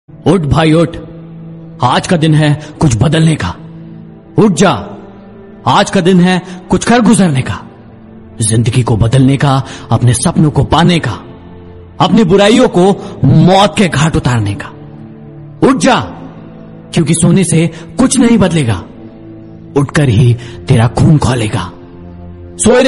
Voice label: Motivation Hindi, Alarm Motivation Ringtone